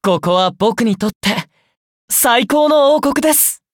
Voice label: level up, ja; Cookie Run

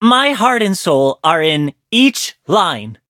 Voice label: loading, en; Cookie Run

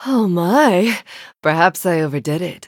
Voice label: respawn, eng; brie cheese; Cookie Run

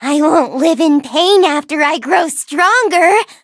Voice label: level up, en

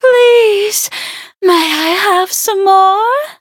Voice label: levelup, en; Cookie Run

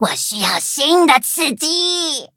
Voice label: touch, zh hant; cookie run

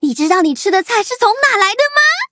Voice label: loading zh-hant; Cookie Run

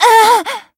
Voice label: hit, en; cookie run

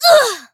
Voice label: hit cookie, en; cookie run

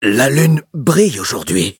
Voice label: levelup, fr; cookierunwiki

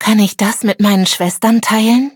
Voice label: levelup, de; cookie run

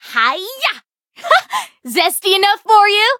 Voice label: level up, en; Cookie Run

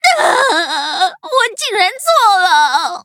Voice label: defeat, zh-hant; Cookie Run

